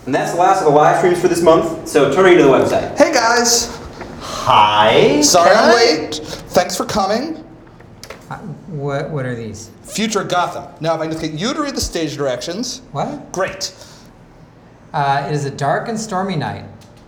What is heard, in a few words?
Unmixed all channels open